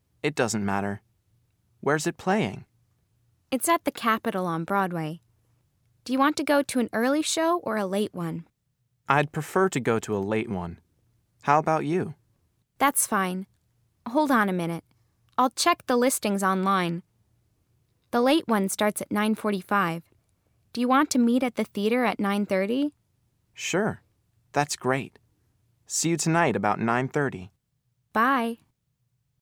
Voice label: Going to a Movie Dialogue Reading